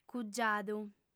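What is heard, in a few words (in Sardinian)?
"cugiadu", female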